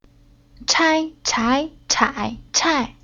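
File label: "Chai", Chinese syllable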